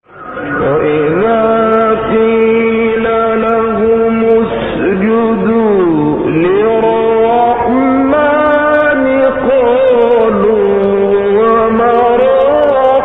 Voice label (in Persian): تلاوت; استاد شعبان عبدالعزیز الصیاد; ahlolbait